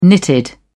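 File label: "knitted", English, British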